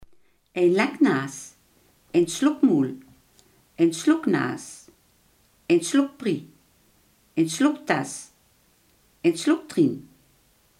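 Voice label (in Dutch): iemand die graag snoept, Limburgse gesproken woordenboeken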